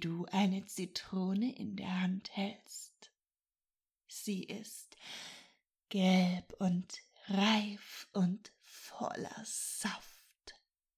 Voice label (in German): Hypnose Training Schmecken; Ohrgasmus